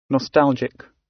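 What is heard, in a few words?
"nostalgic", British English; Time, history; Thời gian, lịch sử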